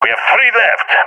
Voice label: enemies left three, balkan; vo; balkan epic